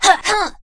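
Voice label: Female tech deploy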